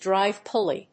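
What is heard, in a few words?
"drive pulley", English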